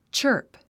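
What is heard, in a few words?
"chirp", English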